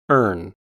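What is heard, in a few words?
"erne", US English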